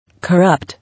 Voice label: "Corrupt", English